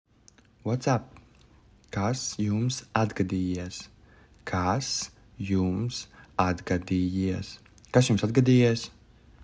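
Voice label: "What's up", Latvian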